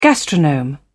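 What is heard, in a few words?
"gastronome", British English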